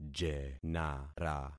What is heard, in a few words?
"jenara", concat syllables; Affixing experiment